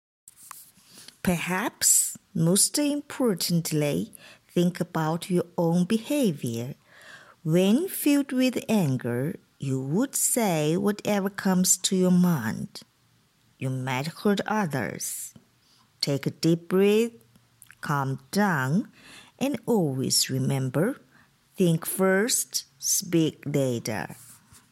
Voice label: 英文对话

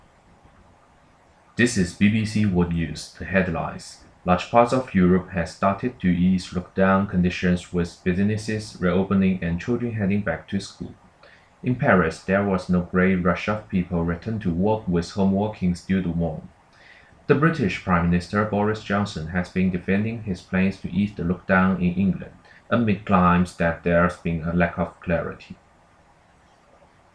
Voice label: 干声

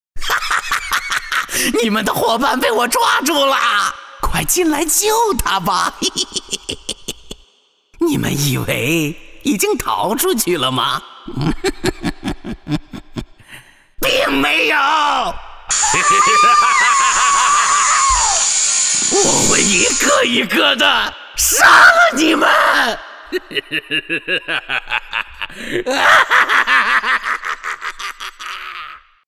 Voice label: 角色猥琐变态

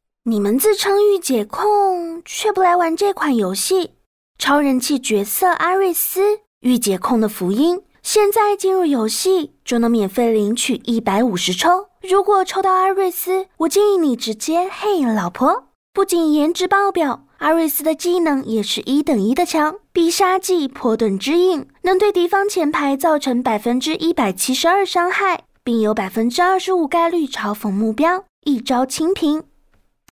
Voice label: 台湾腔信息流

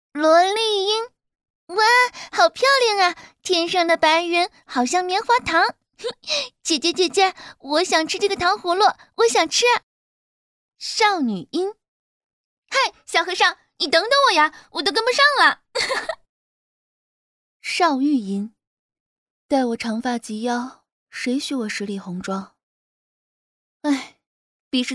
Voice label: 多角色声音展示

